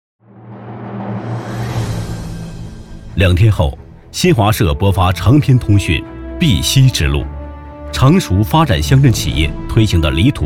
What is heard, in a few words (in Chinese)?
政论纪录片